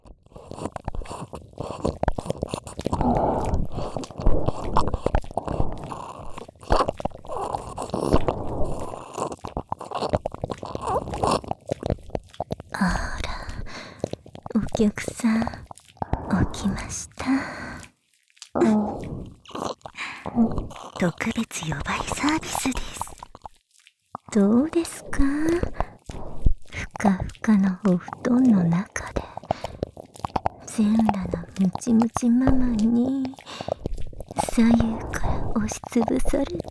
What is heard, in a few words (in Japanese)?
夜這い耳舐め, ASMR; ママ布団にいっぱいお漏らししちゃいまちょ